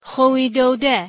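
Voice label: "hueydode", Persian, female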